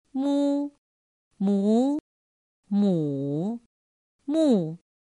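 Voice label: "mū mú mǔ mù", Chinese; pinyin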